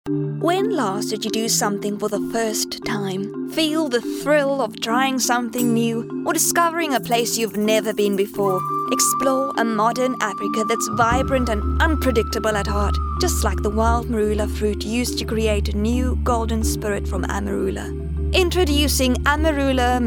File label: English Soft Sell